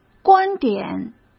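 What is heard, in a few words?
"观点", Mandarin Chinese; hsk; chinese-flashcards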